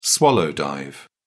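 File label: "swallow dive", British English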